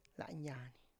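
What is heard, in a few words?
"lanyany", Tlacochahuaya Zapotec; throat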